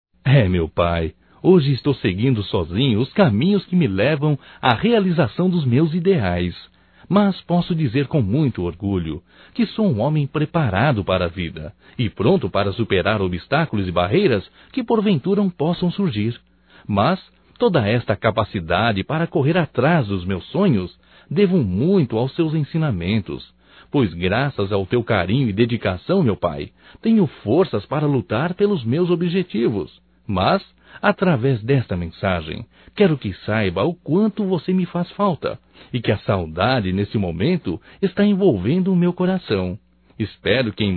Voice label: SAUDADES PAI, Só Voz, masc; Telemensagens; Telemensagens 2017

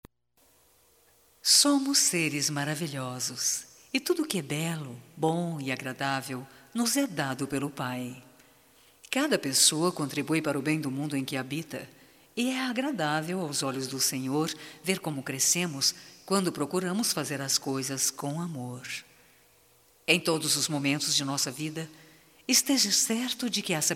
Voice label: Voz, fem; Telemensagens; Só Voz; DEUS TE ILUMINE; Telemensagens 2017

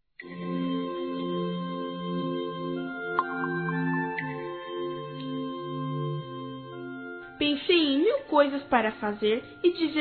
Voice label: PAI MÃE F INFANTIL, Telemensagens, Aniversários, Fem; Aniv Familiar

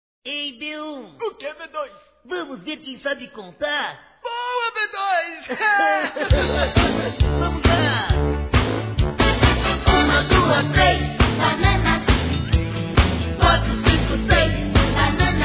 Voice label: aniv. primo, Telemensagens; Aniversário Infantil

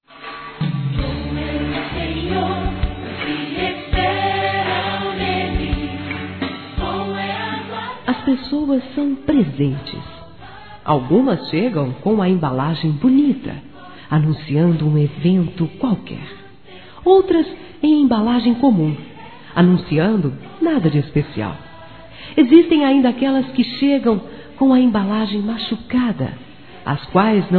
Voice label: Aniversário Atrasado Feminino; Aniversários; ANIV. Evangelico

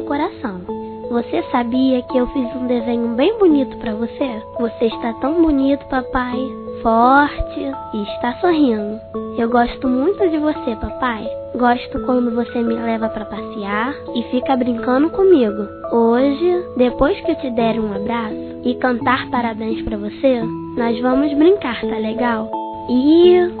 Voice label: Aniversário Pai Infantil Feminino; Telemensagens

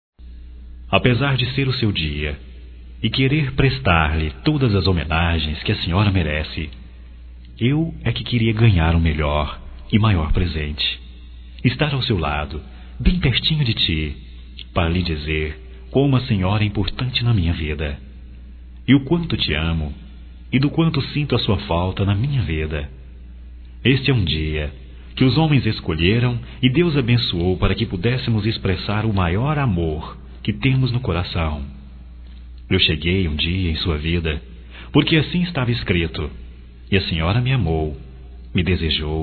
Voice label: DISTANTE (M), Dia das Maes, masc; Telemensagens 2017

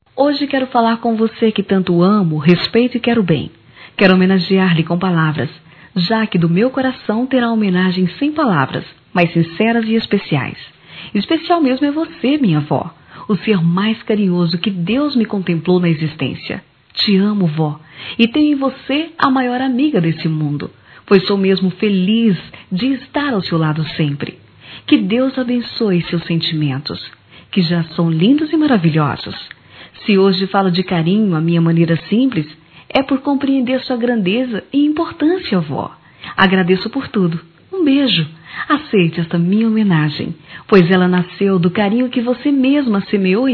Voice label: Para avó f, Telemensagens, Dia das Maes; Telemensagens 2017

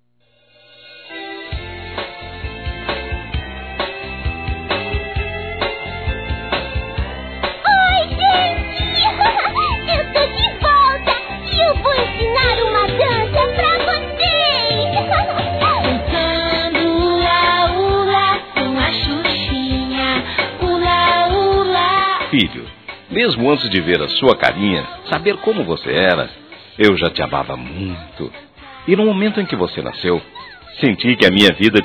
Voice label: Aniversário Filho Masculino; Telemensagens 2017; Aniversários; Aniversário Infantil

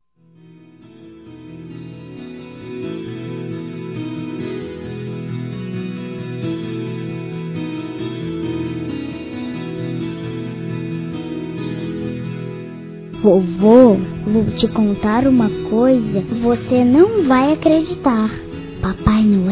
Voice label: Natal PARA Avô Feminino; Telemensagens; Natal e Ano novo

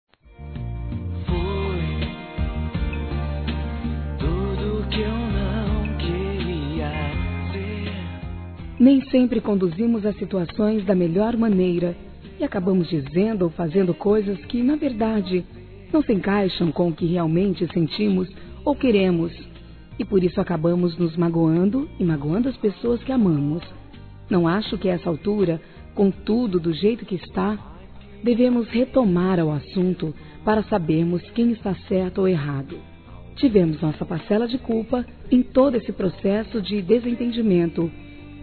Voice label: DESCULPAS ROMANTICA-F; Telemensagens; fem; Telemensagens 2017

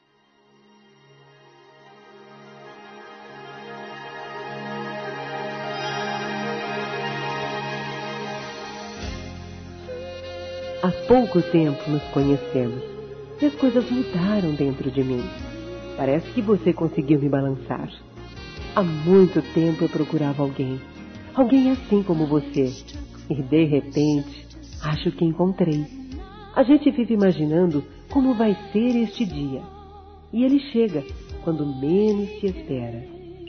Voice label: Feminino; Você me Balançou; Telemensagens 2017; Românticas

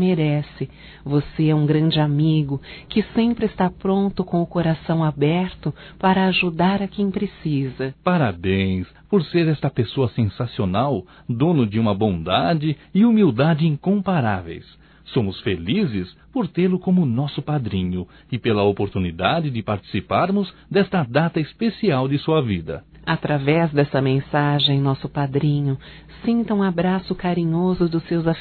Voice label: ANIV MADRINHA (MF); Telemensagens 2017; Aniversarios

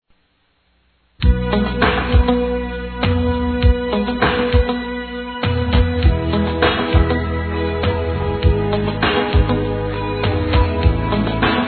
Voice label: ANIV. CLIENTE F

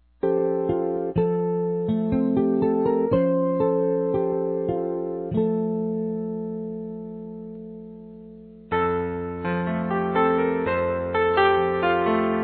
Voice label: Aniversário Comadre Feminino, Telemensagens; Aniversários; Aniv Familiar

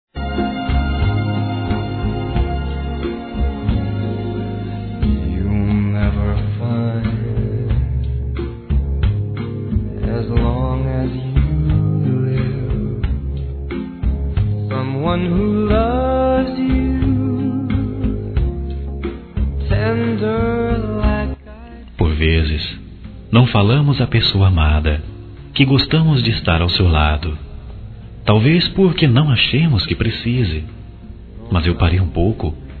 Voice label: Sou feliz com você Masculino, Telemensagens Românticas

